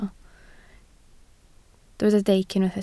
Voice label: "deic", Welsh